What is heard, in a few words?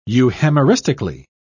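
"euhemeristically", British English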